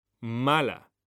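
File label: "mala", Spanish, male; mekanta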